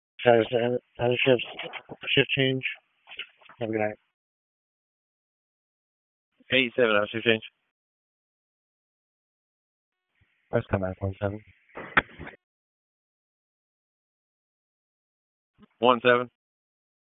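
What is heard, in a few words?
EMS Dispatch; wake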